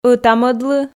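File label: "ӧта-мӧдлы", Komi, female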